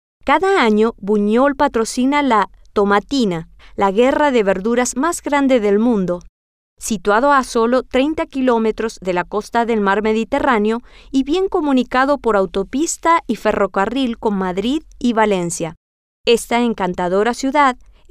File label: spanish; la Guerra De Comida